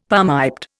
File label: "palmiped", Indian; Dictionary